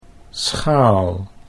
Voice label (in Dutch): "schaal", Dutch